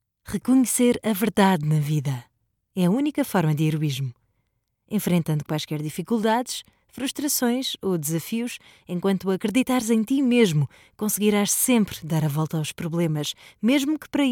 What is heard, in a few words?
portuguese f narration; voice demos